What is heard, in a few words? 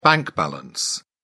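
"bank balance", British English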